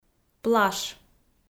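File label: "плаш", Komi, female; audio dict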